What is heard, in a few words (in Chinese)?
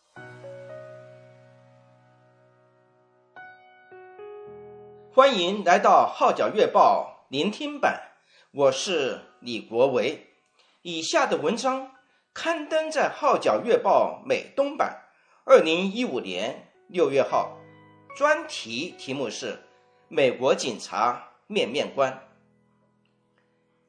Mandarin